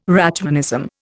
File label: "Rachmanism", Indian